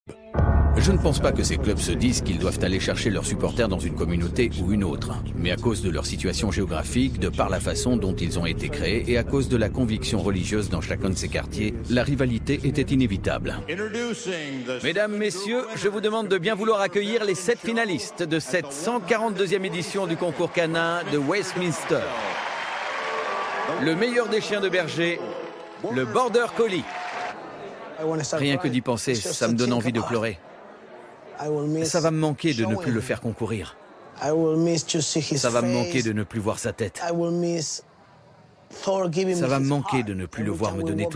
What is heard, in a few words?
VOICE OVER